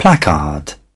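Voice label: "placard", British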